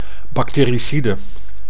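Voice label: "bactericide", Dutch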